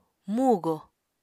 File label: "mugo", Apatani